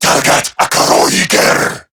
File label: Player Spotted, Male, Battle Barks